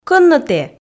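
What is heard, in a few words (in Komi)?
Komi, кӧн нӧ тэ, female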